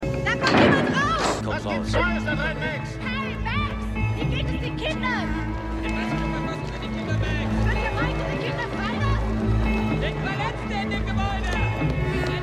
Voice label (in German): Reporterin; Mad City